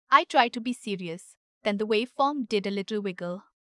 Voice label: Thoughtful and calm, suited to longer explanation and reflective narration.; thoughtfulcalmnuanced